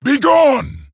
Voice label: Male Orc Attack